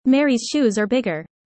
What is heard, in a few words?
user fast; how tall